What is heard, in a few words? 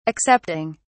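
"accepting", English, British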